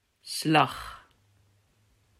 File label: "Slag", Dutch